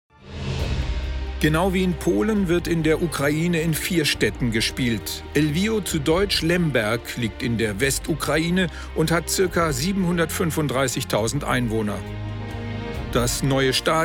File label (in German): Dokumentation; ZDF; EM Fieber